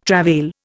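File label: "travail", Indian English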